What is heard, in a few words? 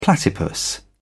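"platypus", British English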